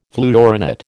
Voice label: "fluorinate", American

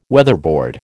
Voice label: "weatherboard", American

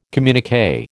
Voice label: "communiqué", American

